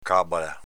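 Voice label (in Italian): "càbala" Veneto dialect; Vocabolario Veneto-Italiano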